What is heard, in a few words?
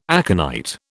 "aconite", British